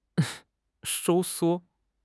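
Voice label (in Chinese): "收缩", Chinese; HSK6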